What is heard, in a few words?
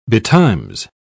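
"betimes", British English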